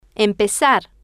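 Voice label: "empezar", Spanish, female, normal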